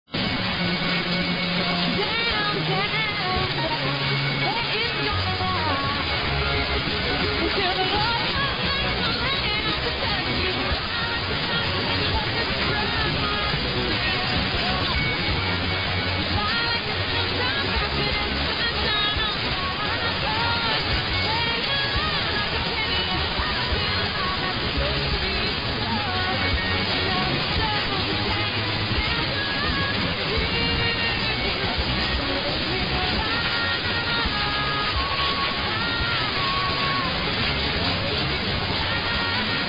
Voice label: 1.22 MHz SAM; KDDR The Tornado